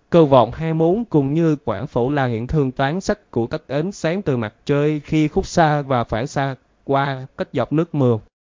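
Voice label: speaker, American English; piper-voices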